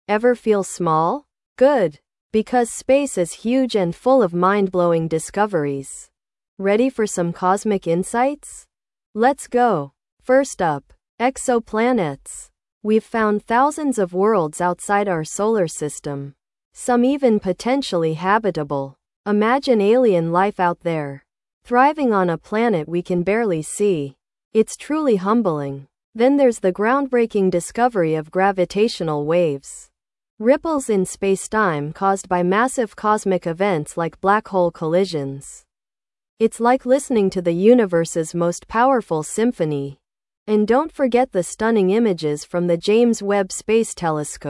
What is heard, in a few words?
voiceover